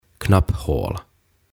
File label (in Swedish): "Knapphål" Napinlävet